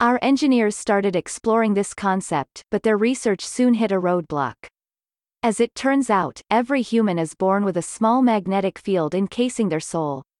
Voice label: Monologue; Avie